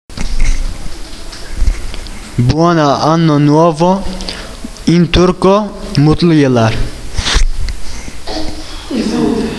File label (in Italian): turco